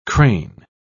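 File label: "cran", British English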